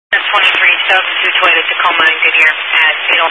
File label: Highway Patrol, scanner; DPS